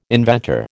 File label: "inventor", American